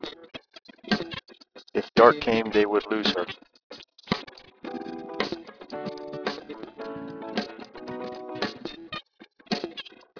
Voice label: mix mus sp M